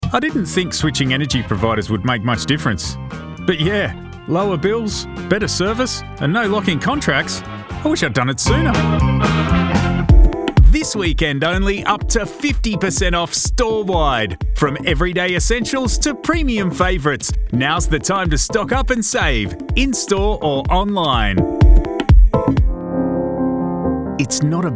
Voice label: commercial